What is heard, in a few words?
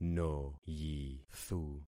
"noyithu", concat syllables; Affixing experiment